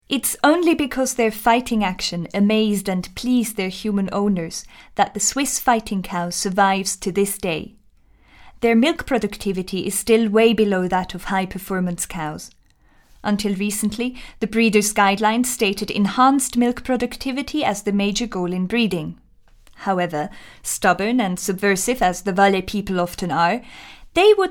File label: DOCU; Swiss Fighting Cows